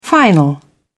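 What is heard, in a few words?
"final", British English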